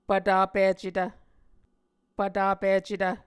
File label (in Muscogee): "pvtapicetv", Muscogee